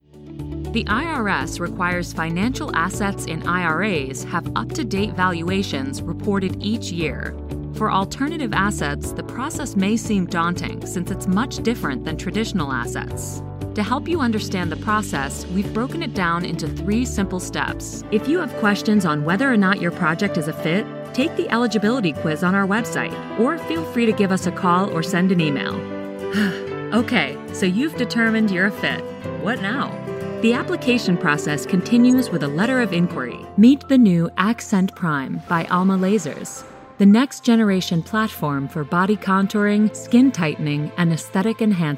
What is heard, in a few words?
Explainer Video